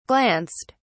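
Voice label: "glanced", English, British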